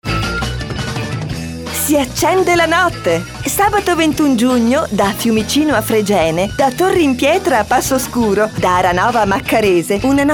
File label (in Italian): Spot; Fiumicino Notte Bianca